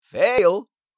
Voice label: Game over fail male voice; video game